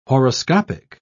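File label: "horoscopic", British English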